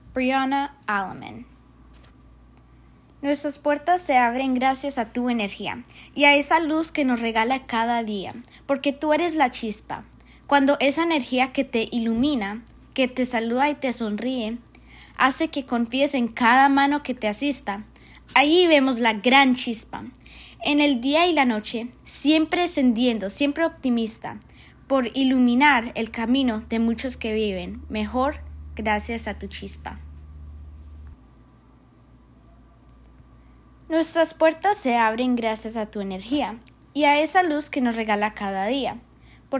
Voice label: Spanish